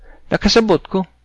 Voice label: understand, cebuano